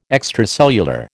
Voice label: "extracellular", American

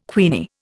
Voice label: "queenie", Indian

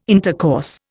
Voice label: "intercourse", Indian

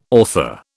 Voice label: "author", British

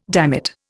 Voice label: "dammit", Indian

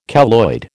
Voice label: "keloid", American

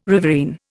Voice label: "riverine", Indian

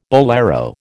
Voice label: "bolero", American

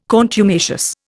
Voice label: "contumacious", Indian

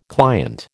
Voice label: "client", American

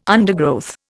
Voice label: "undergrowth", Indian

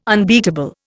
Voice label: "unbeatable", Indian

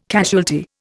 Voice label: "casualty", Indian

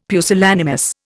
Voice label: "pusillanimous", Indian; Dictionary

